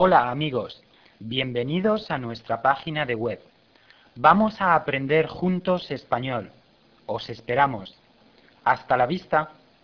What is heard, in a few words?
spanish